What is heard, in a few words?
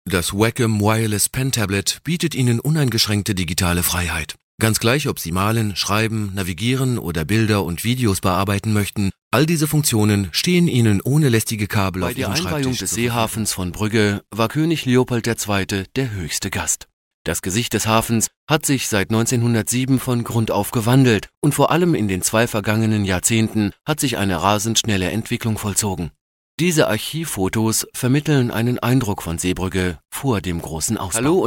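German, e-learning; GoLocalise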